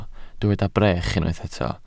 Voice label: "brech", welsh

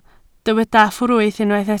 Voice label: "ffrwyth", Welsh

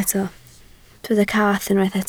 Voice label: "cath", Welsh

